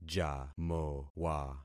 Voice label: "jamowa", concat syllables; Affixing experiment

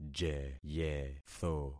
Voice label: "jeyetho", concat syllables; Affixing experiment

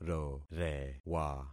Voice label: "rorewa", concat syllables; Affixing experiment

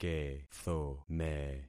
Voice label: "kethome", concat syllables; Affixing experiment

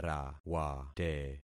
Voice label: "rawate", concat syllables; Affixing experiment

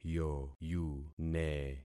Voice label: "yoyune", concat syllables; Affixing experiment

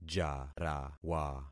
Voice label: "jarawa", concat syllables; Affixing experiment

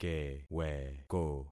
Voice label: "keweko", concat syllables; Affixing experiment

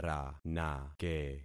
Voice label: "ranake", concat syllables; Affixing experiment

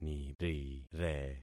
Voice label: "nirire", concat syllables; Affixing experiment

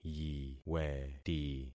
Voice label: "yiweti", concat syllables; Affixing experiment

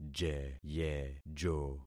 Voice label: "jeyejo", concat syllables; Affixing experiment

